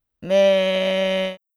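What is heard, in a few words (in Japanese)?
"め", Japanese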